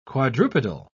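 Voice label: "quadrupedal", English, British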